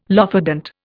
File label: "lophodont", Indian